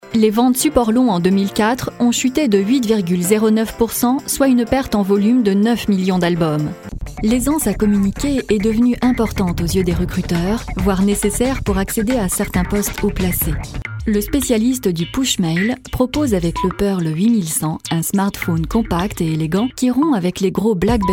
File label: corporate